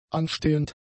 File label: "anstehend", German; adjectives